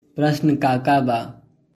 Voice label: Prashna ka ka ba?, Bhojpuri; What are the questions?